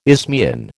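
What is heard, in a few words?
"isthmian", American English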